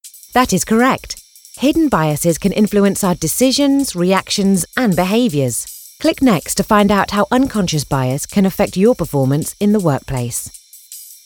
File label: english british e-learning